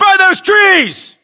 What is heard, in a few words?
landmark near trees, US